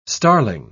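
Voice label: "starling", English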